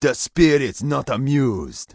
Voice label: Troll Male Shaman NPC Pissed